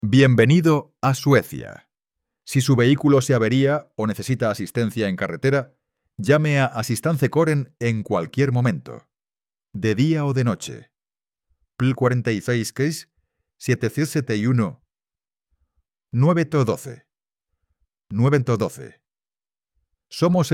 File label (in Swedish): spanska